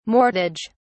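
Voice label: "mortage", British English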